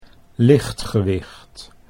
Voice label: "lichtgewicht", Dutch